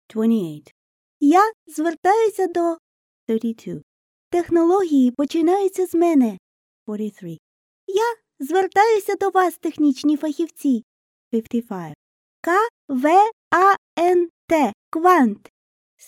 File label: Dear Tech uk